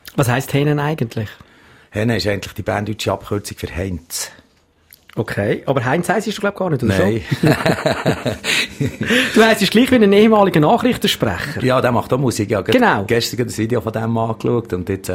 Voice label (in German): Interview mit Mundart Sänger; Spitalradio LuZ